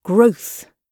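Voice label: "growth", British English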